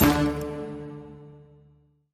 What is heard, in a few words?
announce; Sheriff Of Nottingham